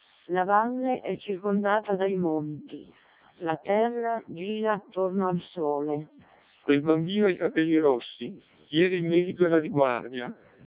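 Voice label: Italian speech, CODEC2 vocoder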